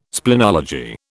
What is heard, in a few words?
"splenology", British